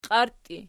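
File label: "qiarti" ergative, Archi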